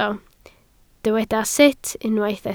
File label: "sut", Welsh